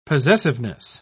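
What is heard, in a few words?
"possessiveness", British English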